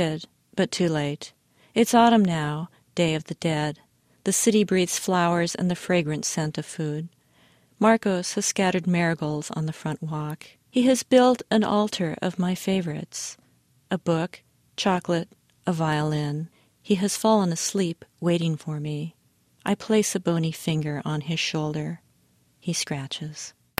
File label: spot; alcala